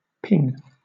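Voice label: "ping", British English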